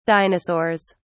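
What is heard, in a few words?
"dinosaurs", British English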